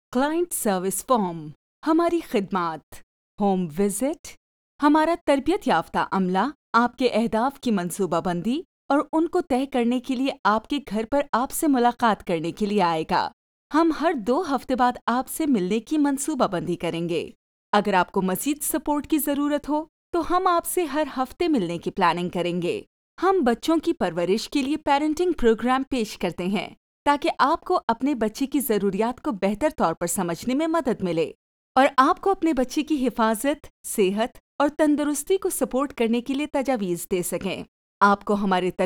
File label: Our service Urdu